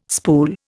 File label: "spool", Indian